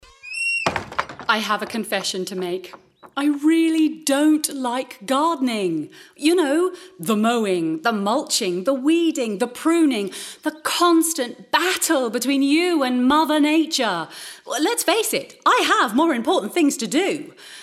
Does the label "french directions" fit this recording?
No